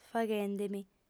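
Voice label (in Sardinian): "faghende·mi", female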